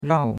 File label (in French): "rao4", chinois; Cours-de-Chinois